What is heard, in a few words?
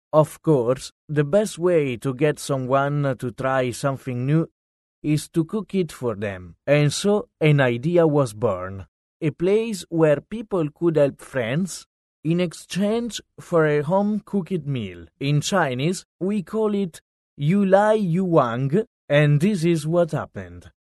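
Italian, male, English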